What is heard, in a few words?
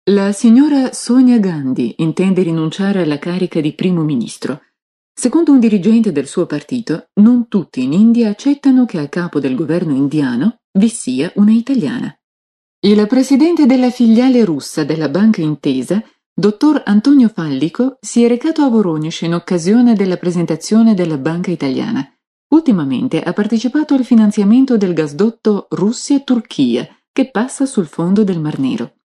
italian female